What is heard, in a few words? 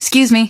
"excuse me", female; npc; Garry's Mod; hl2